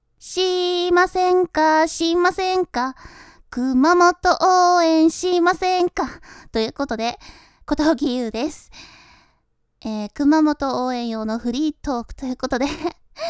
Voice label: ASMR, 熊本フリートーク

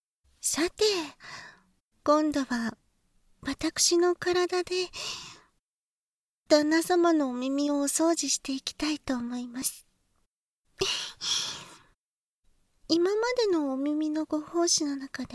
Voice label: 耳舐め, バイノーラルマイク 効果音あり, ASMR; 耳舐め手コキ

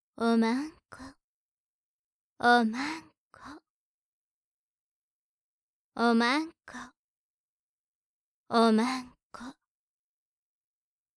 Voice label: 耳が幸せボイス; 【サンプル】淫語でおなてつ〜ビッチお姉さんの搾精オナてつ〜

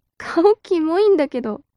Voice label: mob 女子 台詞

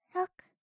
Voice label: 通常カウント

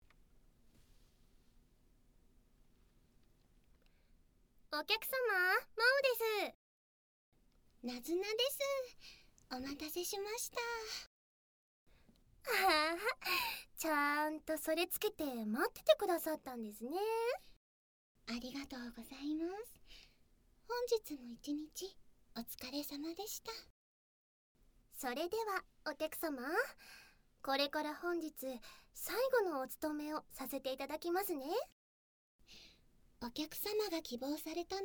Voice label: カウントダウン 効果音あり, ASMR; あまあまいじめりょかん; 初宿泊

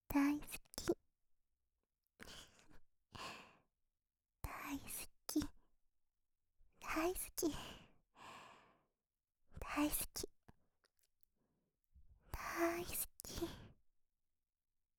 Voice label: "大好き", ASMR

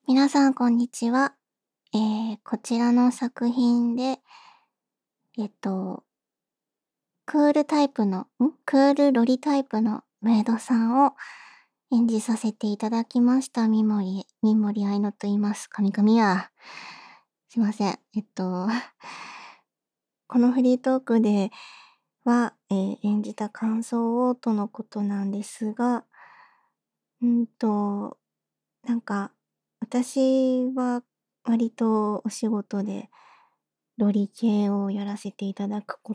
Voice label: フリートーク; マドカ役

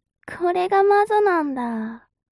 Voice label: mob 女子 台詞

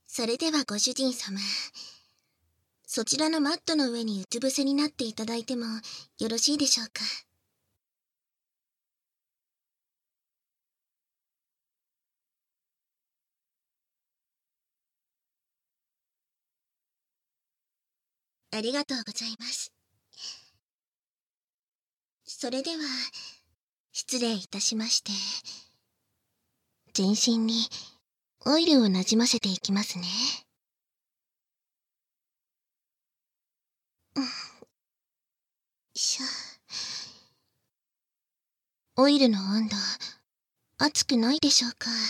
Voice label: マッサージ, バイノーラルマイク収録 効果音なし

